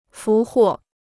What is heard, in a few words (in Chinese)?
"俘获", Chinese